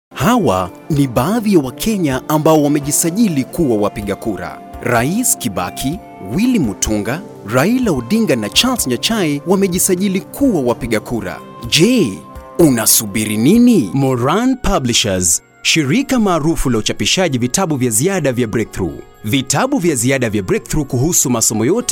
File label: swahili commercial